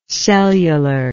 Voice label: "cellularly", English

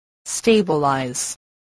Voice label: "stabilize", voice

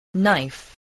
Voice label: "knife", voice

